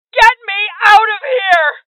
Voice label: Voices HeavyLosses Female; rebel cops 2019